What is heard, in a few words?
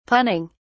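"punning", British English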